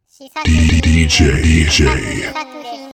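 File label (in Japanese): DJの言い方（男性）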